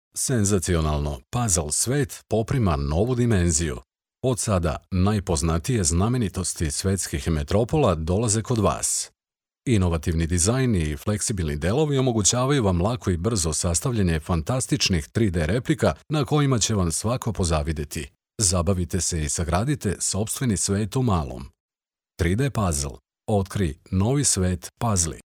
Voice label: male serbian voice over talent narrative

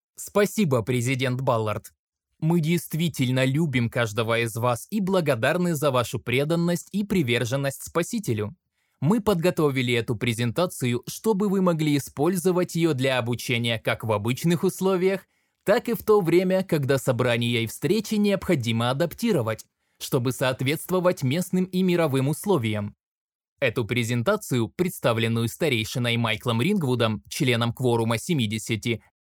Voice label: male russian voice over talent narrative

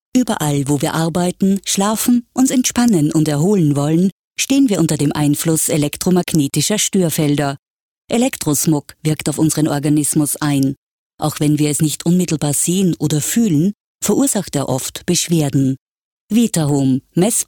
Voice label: female german voice over talent upbeat

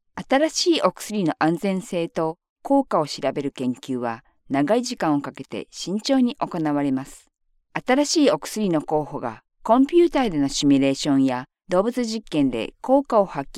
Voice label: female japanese voice over narrative

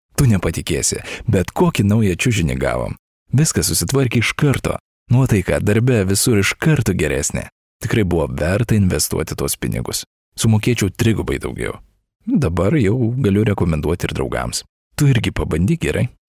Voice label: male lithuanian voice over character

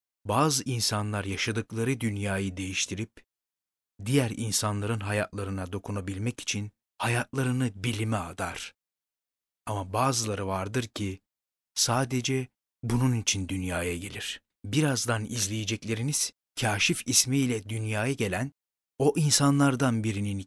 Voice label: male turkish voice over talent narrative

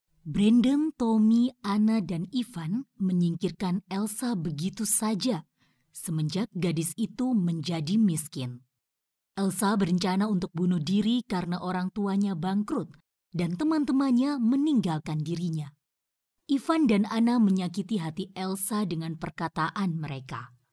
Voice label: female indonesian voice over narrative

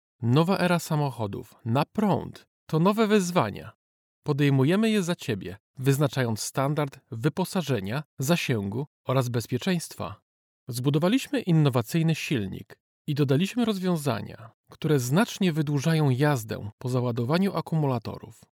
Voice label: male polish voice over talent sales

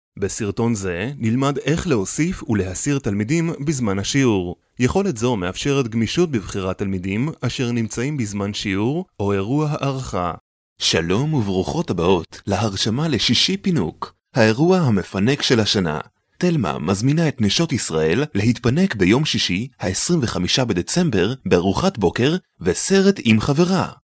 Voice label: male hebrew voice over talent narrative

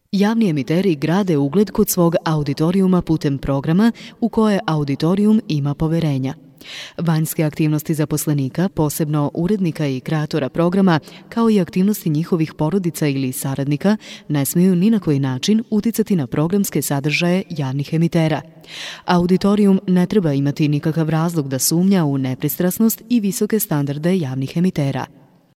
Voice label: female serbian voice over narrative

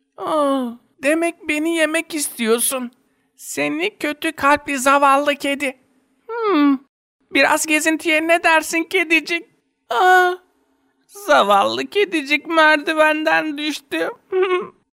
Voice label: male turkish voice over talent character

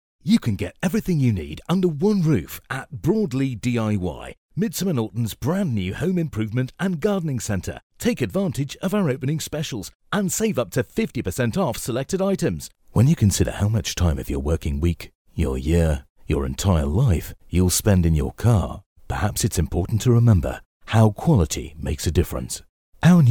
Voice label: male british voice over sales, english british

